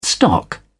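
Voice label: "stock up", English, British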